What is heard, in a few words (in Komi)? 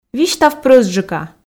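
"висьтав прӧстджыка", Komi, female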